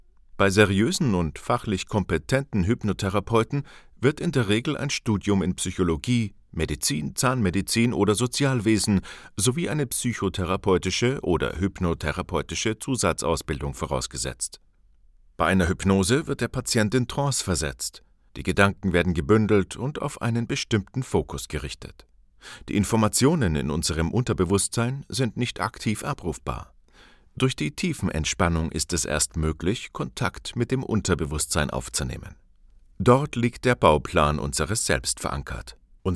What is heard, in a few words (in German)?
Ratgeber